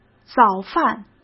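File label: "早饭", Mandarin Chinese; hsk; chinese-flashcards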